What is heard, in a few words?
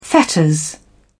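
"fetters", English, British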